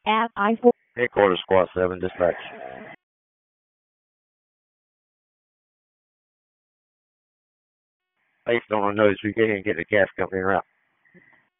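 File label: Fire Department HQ Dispatch; RFD; wake; p25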